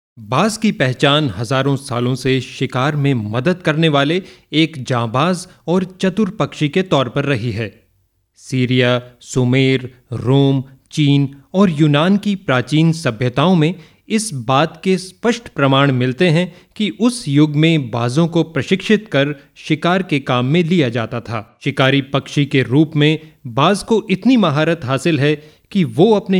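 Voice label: e-learn, Hindi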